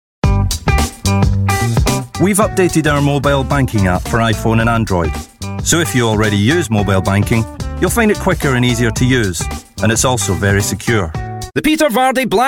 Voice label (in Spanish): Masculino